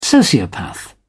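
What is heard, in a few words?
"sociopath", British English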